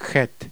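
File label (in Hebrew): "chet", Hebrew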